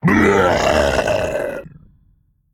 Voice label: Male Construct Death